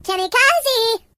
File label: Kamikaze, Singer; hedgewars